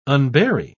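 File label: "unbury", British English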